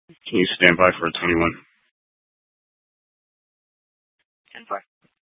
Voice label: PD Dispatch